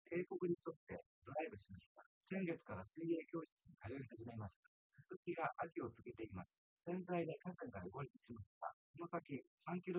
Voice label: male synthconv; SiSEC08; SiSEC underdetermined

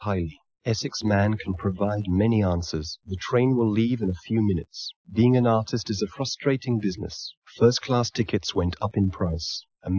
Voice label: male inst src; SiSEC11; underdetermined

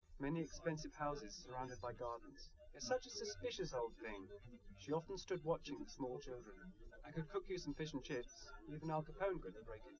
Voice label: test male live rec; SiSEC11; underdetermined

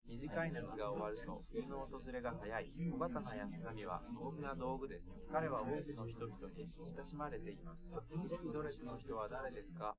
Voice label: male liverec; SiSEC11; underdetermined

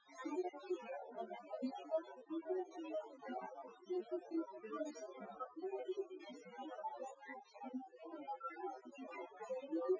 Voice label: female4 synthconv 250ms 5cm src

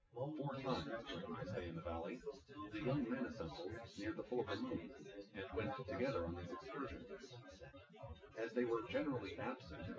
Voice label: male synthconv; SiSEC08; SiSEC underdetermined

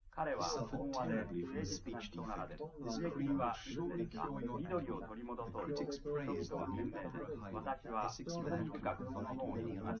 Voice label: test male liverec 250ms 5cm sim

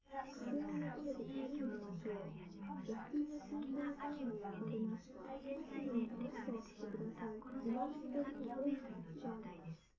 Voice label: female liverec; underdetermined; SiSEC11

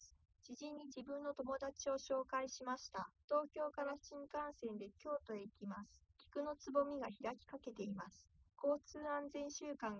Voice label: female3 liverec 130ms 1m sim; SiSEC08; SiSEC underdetermined

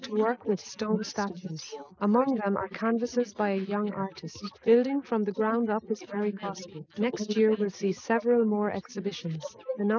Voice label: female, inst

